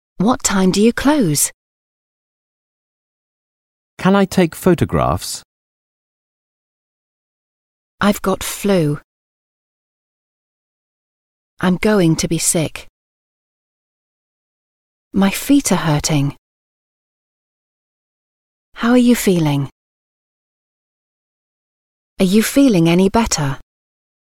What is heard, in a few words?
English Sentences